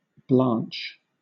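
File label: "blanch", English, British accent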